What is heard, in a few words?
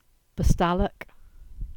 Cornish; Botallack